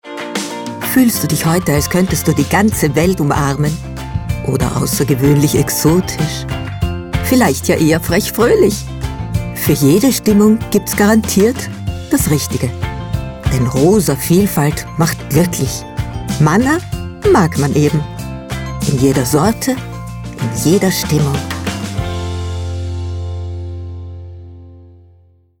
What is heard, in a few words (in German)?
WERBUNG; MANNA